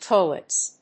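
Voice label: "toilets", English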